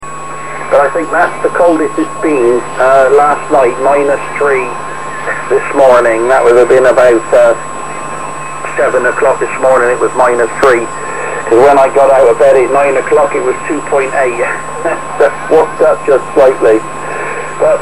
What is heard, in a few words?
40 Mtr SSB